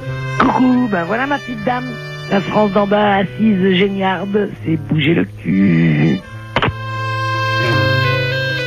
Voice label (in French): France d en bas repondeur